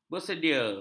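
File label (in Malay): "bersedia", Malay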